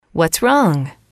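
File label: "what is wrong", English, female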